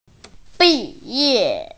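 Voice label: "graduate", Mandarin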